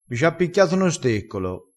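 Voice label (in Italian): "steccolo", vocabolario dialettale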